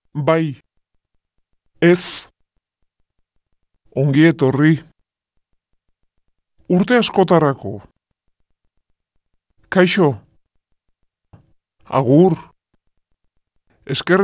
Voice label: Basque